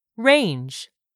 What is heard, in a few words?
"RANGE", English; 3000 words